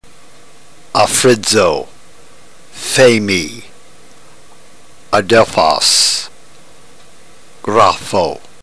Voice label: drill, ntgreek

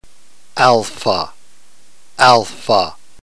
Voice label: "alpha", ntgreek alphabet

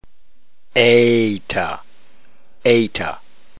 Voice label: "eta", ntgreek alphabet

